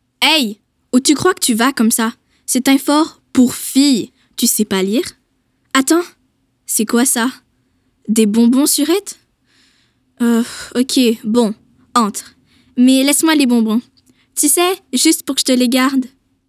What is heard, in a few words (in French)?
vocal Doublage FR; FR 2024